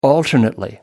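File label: "alternately", British English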